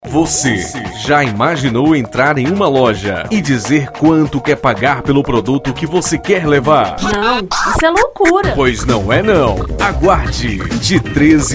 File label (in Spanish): spot institucional; studio mix sound